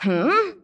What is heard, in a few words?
Female; baldurs1